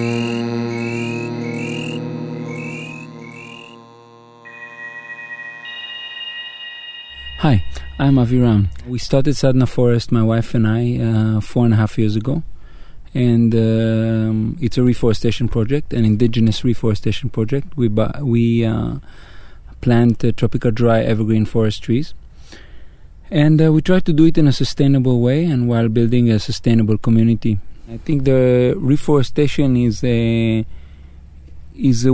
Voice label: interview radio program toward sustainability, english; sadhana forest